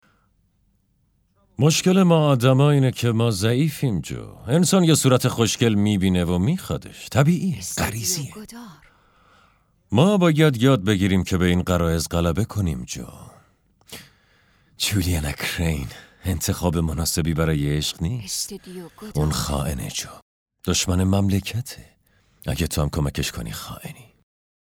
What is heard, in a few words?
dub